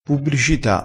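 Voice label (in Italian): "pubbricita", dialettale, crespinese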